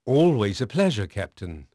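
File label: English male; Pirates Of The Caribbean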